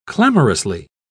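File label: "clamorously", British English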